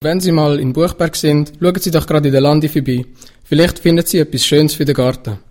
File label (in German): Jingles; Landi Buchberg